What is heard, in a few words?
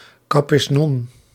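"Capiznon", Dutch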